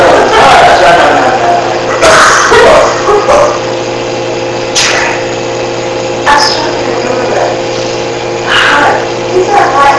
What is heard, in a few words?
Basement Unexplained Whisper (Trek) Amplified